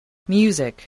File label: "music", voice